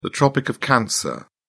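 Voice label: "tropic of cancer", British English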